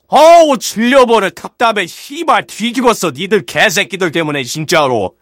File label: scout battle cry